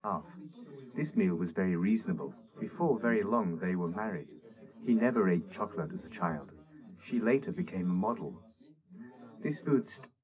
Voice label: male 130ms 4cm; SiSEC11; underdetermined